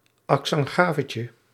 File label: "accent gravetje", Nl